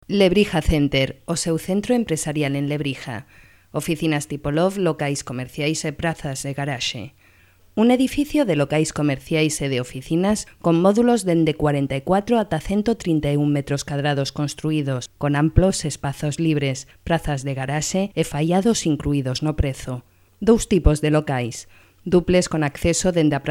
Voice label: locutora gallega

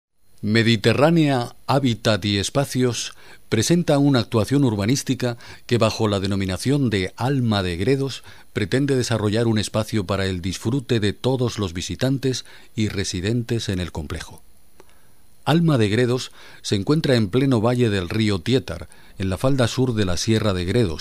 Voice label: locucion documental

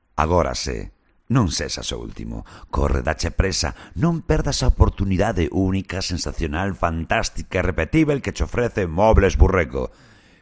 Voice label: locutor galego; Galician male voiceovers

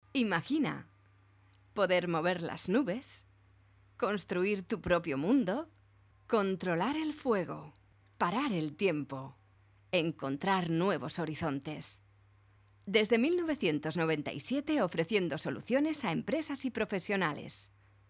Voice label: locutora spain

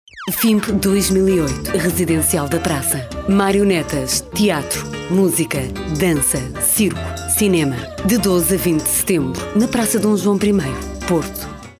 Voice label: locutora portuguesa; Portuguese

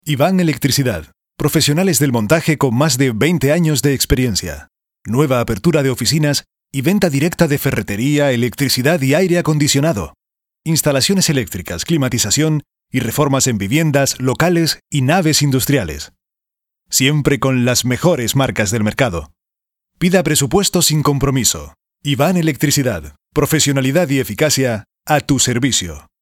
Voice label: locutor canario

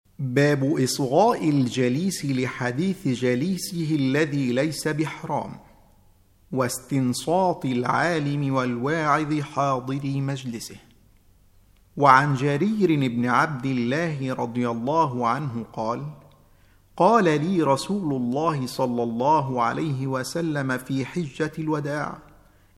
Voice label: locutor arabe; Arabic male voice over

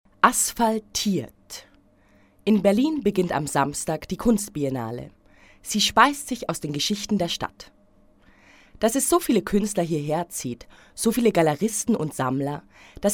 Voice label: locutora alemana; Deutsche Sprecherin

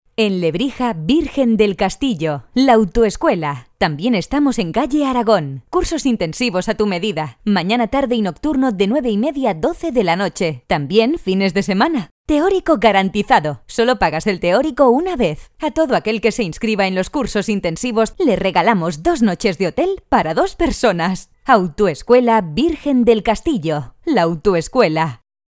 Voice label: locutora spain

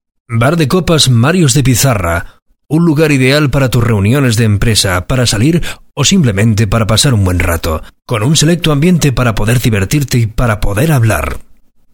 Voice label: locutor espana

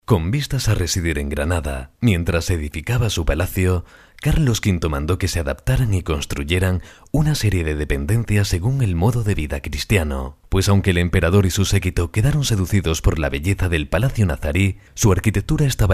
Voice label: locutor spain

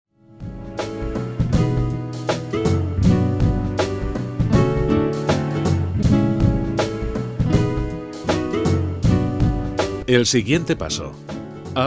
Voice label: audiolibro autoayuda

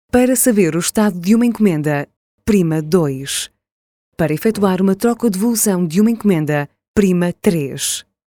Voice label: locutora portuguesa

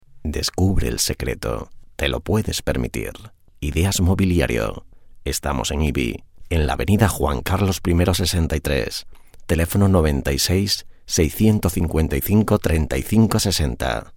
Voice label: locutor spain

